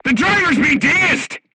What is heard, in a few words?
voice message driver contused, vietnamese